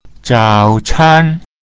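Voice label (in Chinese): "zao can", Mandarin Chinese pinyin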